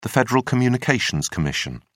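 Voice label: "federal communications commission", British English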